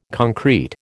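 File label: "concrete", American English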